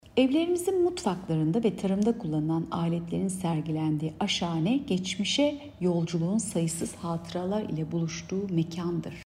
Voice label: turkce